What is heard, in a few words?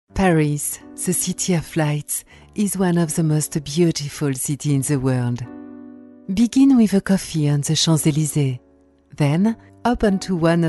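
French, English accent; GoLocalise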